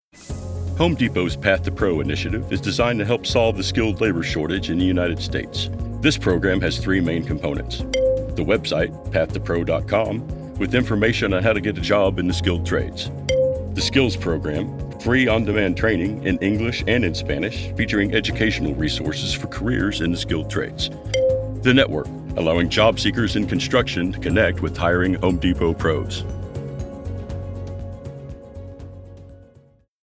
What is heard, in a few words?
ELearning Formal